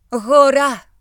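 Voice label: "mountain", British English; gcompris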